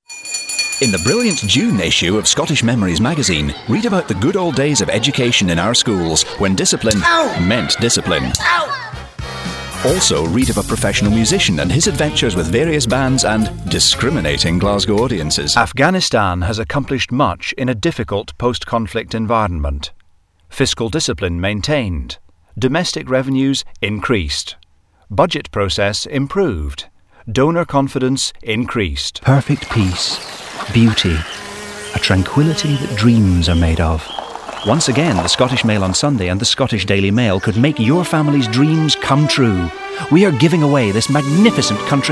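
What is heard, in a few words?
UK male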